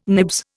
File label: "nibs", Indian